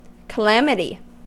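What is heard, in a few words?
"calamity" English, American accent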